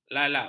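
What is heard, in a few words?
"lalap", Malay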